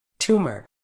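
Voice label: "tumour", British English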